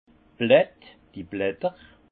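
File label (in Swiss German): "blatt", alsace Haut-Rhin; vin; feuilles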